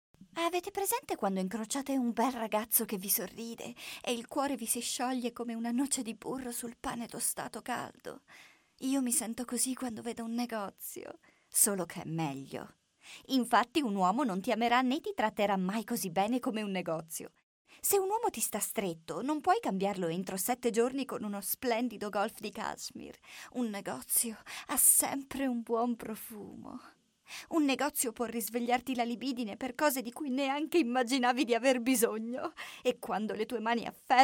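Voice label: Italian, Narrative; GoLocalise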